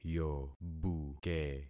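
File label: "yobuke", concatenated syllables; Affixing experiment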